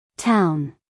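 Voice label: "town", American English female; everyone-can-use-english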